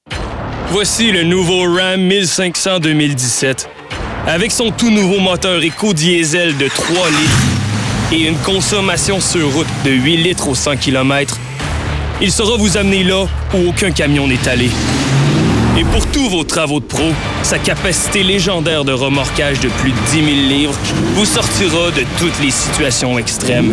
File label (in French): annonceur fr qc joual qc naturel grave serieux adulte; ram